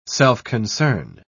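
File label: "self-concern", British English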